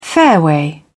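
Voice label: "fairway", British English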